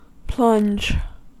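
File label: "plunge" English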